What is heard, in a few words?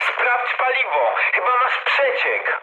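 tank damaged mood med, speech Polish; War Thunder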